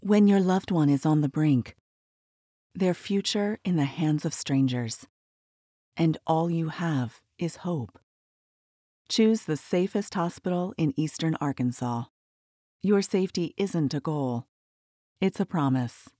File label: Hospital somber dramatic emotional; NEA Baptist Memorial